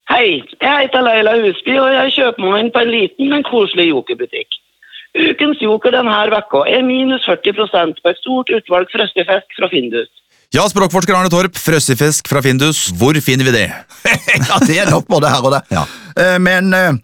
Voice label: radiospots